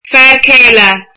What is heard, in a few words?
"husband", irish